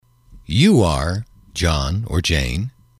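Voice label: you are, male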